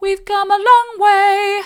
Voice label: we've come along way soft; vocal